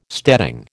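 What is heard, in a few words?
"steading", American English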